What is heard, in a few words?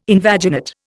"invaginate", Indian